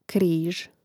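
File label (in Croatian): "križ" Croatian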